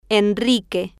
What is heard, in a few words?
"enrique", spanish